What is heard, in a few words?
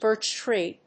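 "birch-tree", English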